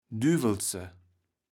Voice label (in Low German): "düwelße", kaevels platt